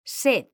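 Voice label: "sed", Spanish, female; mekanta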